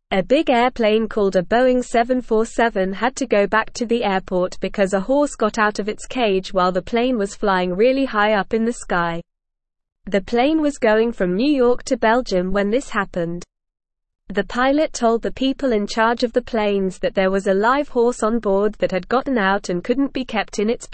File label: English Upper Intermediate NORMAL Reading; Horse chaos forces cargo jet to turn back; English Newsroom